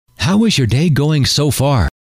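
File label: how is your day going so far, male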